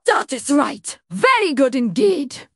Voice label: happy; fertig classic games